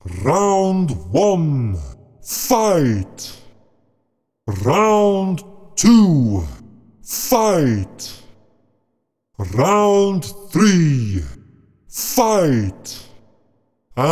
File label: Deep Low Male Voice Round 1 Fight